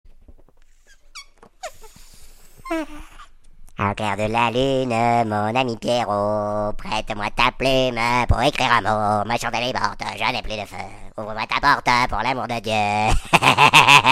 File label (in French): Voix canard helium